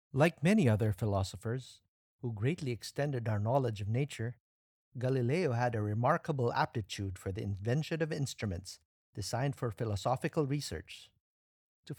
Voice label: English (Asian), Male